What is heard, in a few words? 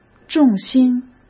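"重心", Mandarin Chinese; hsk; chinese flashcards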